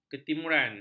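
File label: "ketimuran", Malay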